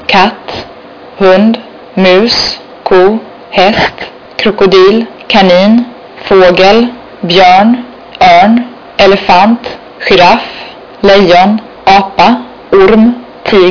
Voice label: swedish animals

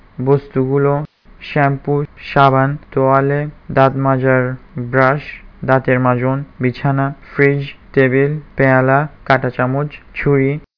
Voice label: bengali

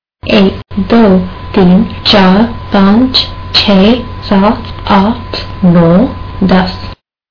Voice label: hindi numbers

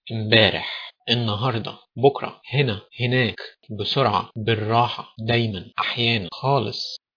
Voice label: egyptian

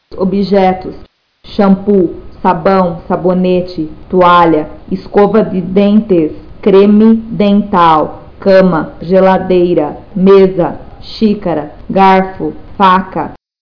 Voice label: portuguese objects

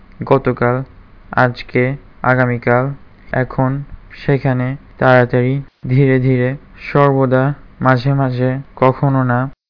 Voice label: bengali

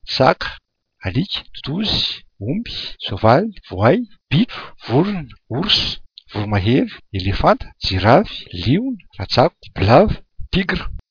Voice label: malagasy animals

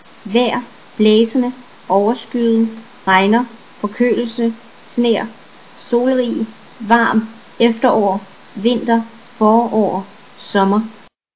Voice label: danish; weather

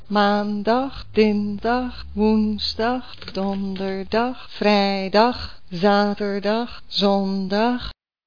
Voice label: dutch

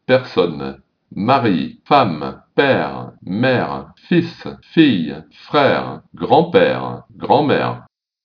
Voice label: french; people

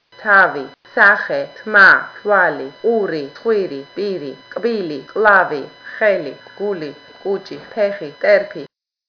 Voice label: georgian

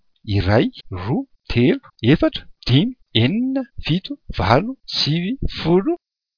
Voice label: malagasy numbers

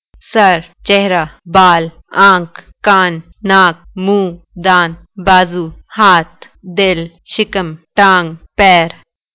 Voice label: Urdu body

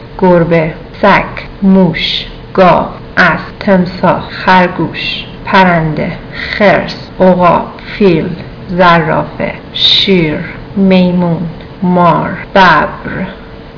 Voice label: persian animals

